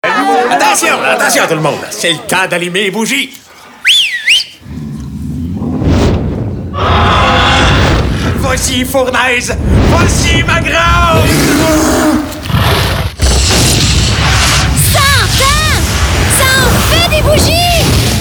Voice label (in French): radio